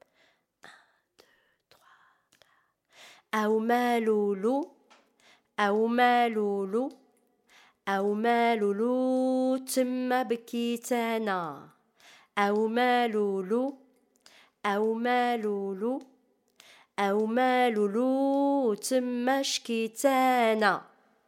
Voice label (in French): AWMALOULOU PRONONCIATION EN RYTHME